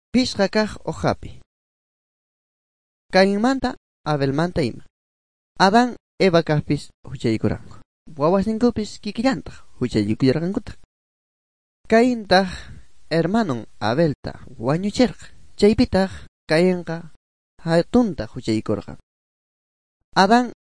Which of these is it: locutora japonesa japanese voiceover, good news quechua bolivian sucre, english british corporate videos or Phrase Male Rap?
good news quechua bolivian sucre